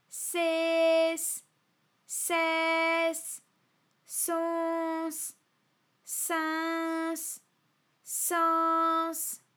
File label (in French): seh sai son sin san s, vocal library, FRA